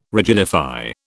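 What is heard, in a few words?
"rigidify", British English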